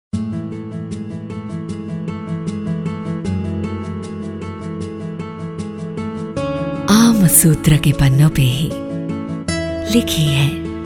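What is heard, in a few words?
TVC, Hindi; Slice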